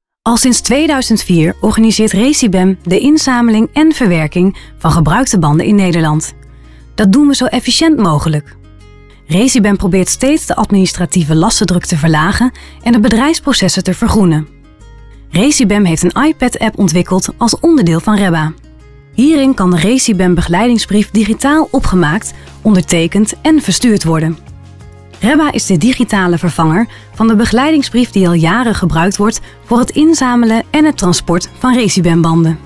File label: voice over